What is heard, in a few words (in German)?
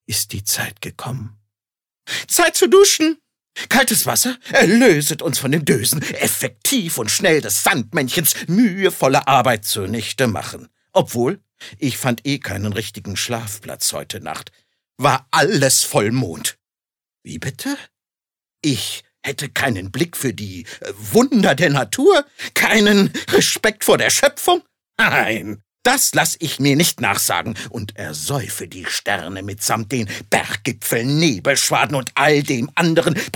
Schauspiel Variabel